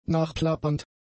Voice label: "nachplappernd", German; adjectives

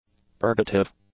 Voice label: "purgative", english; adjectives

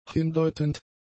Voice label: "hindeutend", German; adjectives

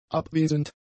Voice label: "abwesend", german; adjectives

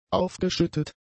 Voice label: "aufgeschüttet", German; adjectives

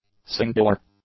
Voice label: "singular", English; adjectives

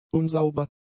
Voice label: "unsauber", German; adjectives

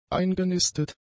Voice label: "eingenistet", German; adjectives

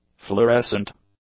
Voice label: "fluorescent", english; adjectives

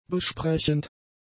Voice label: "besprechend", german; adjectives

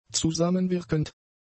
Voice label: "zusammenwirkend", German; adjectives

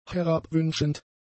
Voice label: "herabwünschend", German; adjectives

